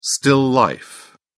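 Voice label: "still life", British English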